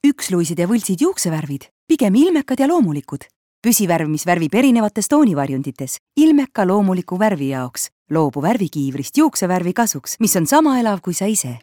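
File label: estonian female commercial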